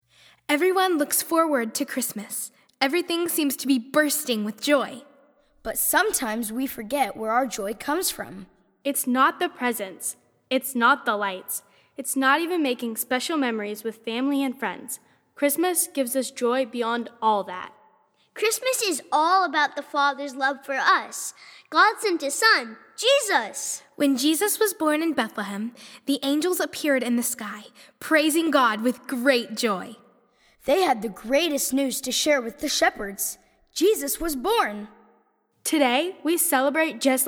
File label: Narration